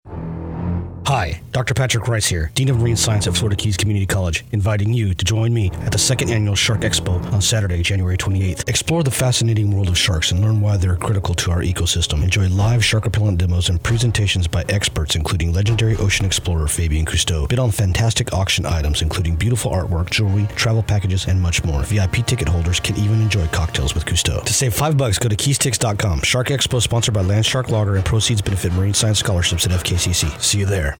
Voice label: COMMERCIAL; SHARK EXPO 2012